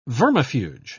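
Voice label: "vermifuge", British English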